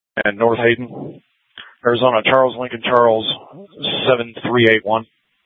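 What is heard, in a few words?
Scottsdale PD North Dispatch; Nth Dispatch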